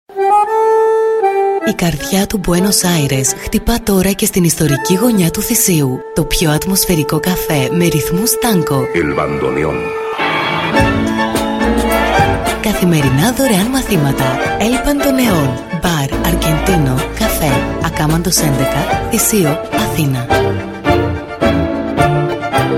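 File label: GR F commercial, Greek, female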